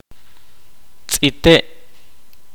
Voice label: kaqchikel